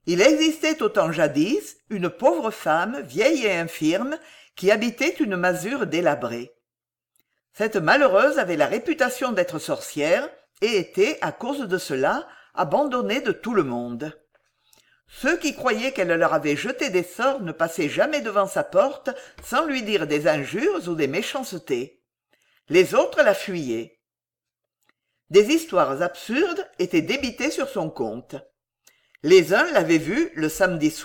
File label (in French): Contes du pays Gallo, Le rouet enchante; Adolphe Orain